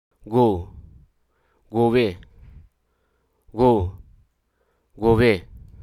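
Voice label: Hruso Aka; courage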